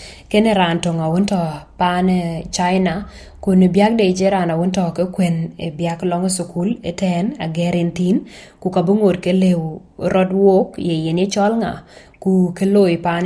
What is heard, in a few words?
dinka, podcast; Bi mɛɛnhpiööc lɔ kuën aɣer tɛ cit pan China yeŋo ye wïc tɛn yen; SBS Dinka